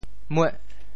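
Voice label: "mueh8", Teochew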